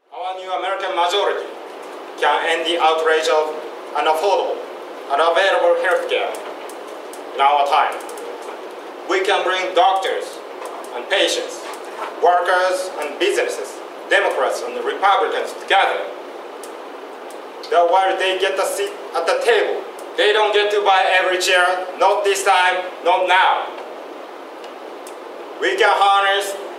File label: imitation speech; Obama; 2nd trial